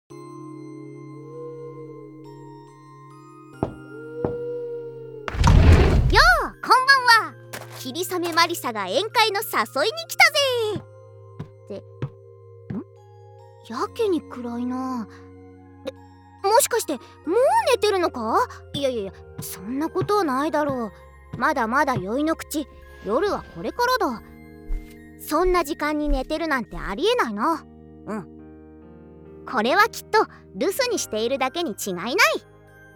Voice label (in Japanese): DOKIDOKI添い寝ボイス